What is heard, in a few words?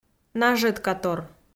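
"нажӧткатор", Komi, female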